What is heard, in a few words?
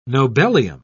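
"nobelium", British English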